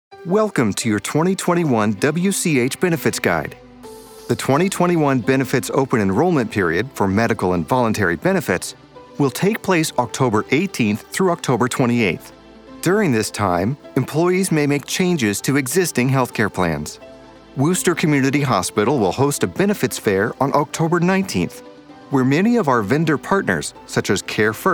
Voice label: voiceover corporate reassuring